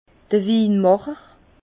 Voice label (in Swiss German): "winmacher", Bas Rhin; vin; vinificateur